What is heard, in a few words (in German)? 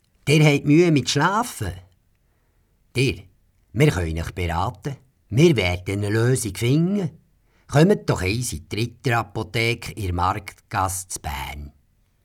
Werbung Berndeutsch